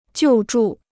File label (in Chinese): "救助", Chinese